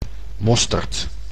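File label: "mosterd", Dutch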